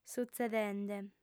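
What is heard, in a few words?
"sutzedende", Sardinian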